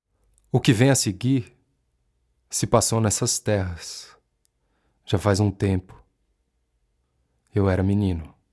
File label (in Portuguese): NARRACAO